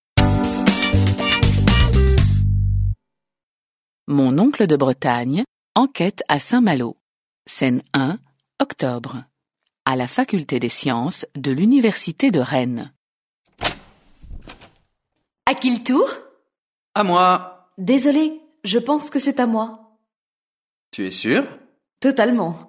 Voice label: French